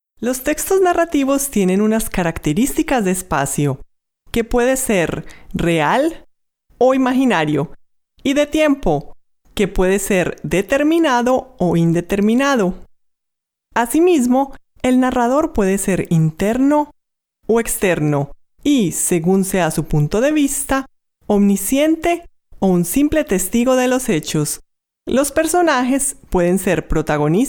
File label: Elearning